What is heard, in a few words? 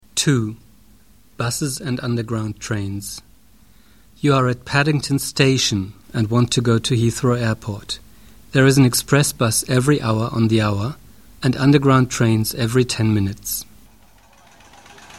loudspeaker announcements; Fremdsprachen